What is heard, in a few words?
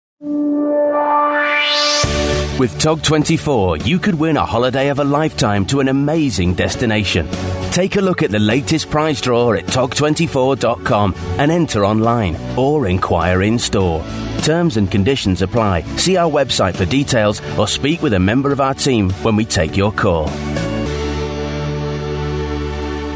on hold ivr sample